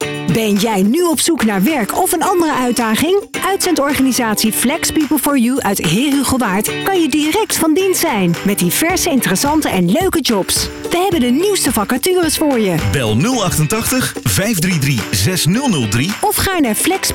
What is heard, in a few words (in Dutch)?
radio bericht